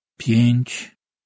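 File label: "pjench", Polish, male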